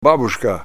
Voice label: "babushka", Ninilchik Russian